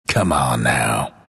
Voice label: announcer text cursing; dlc bastion

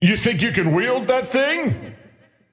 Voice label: scepter announce in game; dota

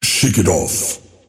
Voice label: lasthit pain; dota; earthshaker; arcana

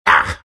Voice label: tusk pain

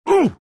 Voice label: lion pain; dota

